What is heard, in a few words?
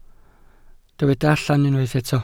"allan", Welsh